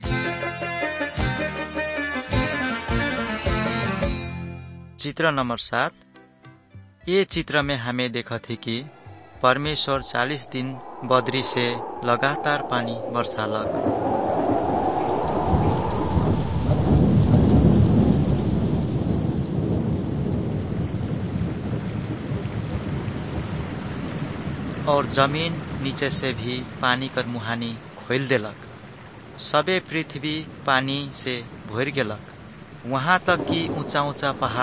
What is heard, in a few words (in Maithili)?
good news maithili, nt drama